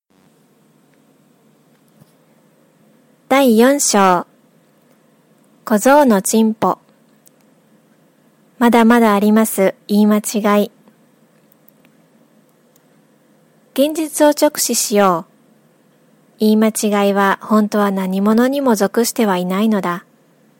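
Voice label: Japanese female Audiobook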